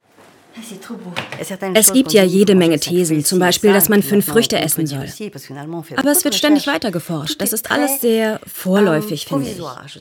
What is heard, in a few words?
Voice Over